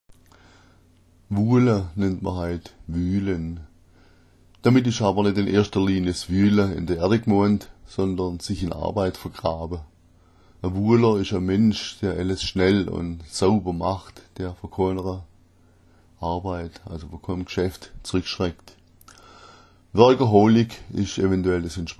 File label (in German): wuala, schwaebisch